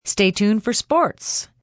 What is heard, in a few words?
Tuned 4 Sports F, Broadcast, announcer, breakaway, female; VoiceBox